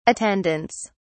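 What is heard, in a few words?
"attendants", English, British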